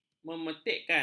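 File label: "memetikkan", Malay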